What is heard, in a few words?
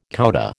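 "coudé", American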